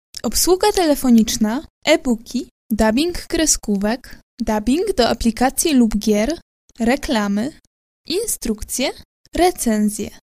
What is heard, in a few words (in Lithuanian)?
MOT Lenku balsas, moteris